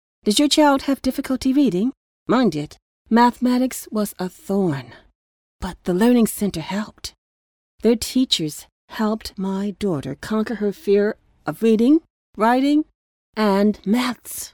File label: Commercial